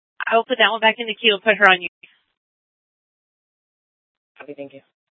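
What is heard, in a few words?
police dispatch, scanner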